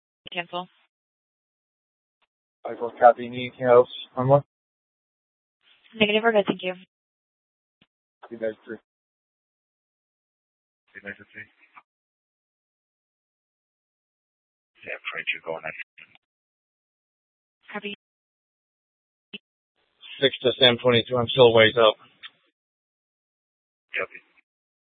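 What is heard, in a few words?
police department Dispatch, scanner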